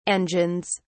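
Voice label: "engines", British English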